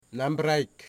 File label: "daughter", Wamut